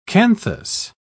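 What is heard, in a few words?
"canthus", British English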